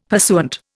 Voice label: "pursuant", Indian; Dictionary

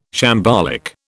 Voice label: "shambolic", British

